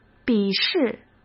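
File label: "笔试", Mandarin Chinese; chinese-flashcards; hsk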